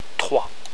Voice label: "trois", french

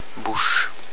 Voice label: "bouche", French; la/une bouche

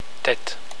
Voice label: "tete", french; la/une tête

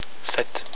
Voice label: "sept", french; sept [sèt']

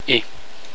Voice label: e acute, french; er, et; Pronunciation: "é"

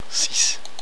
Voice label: "six", french; six [seess]

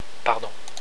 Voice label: "pardon", french

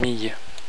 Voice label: "famille", french

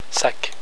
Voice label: "sac", french

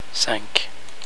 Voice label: "cinq", french

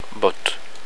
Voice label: "botte", French; la/une botte

